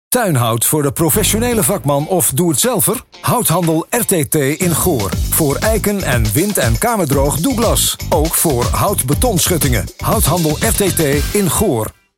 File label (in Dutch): radiocommercial; Houthandel RTT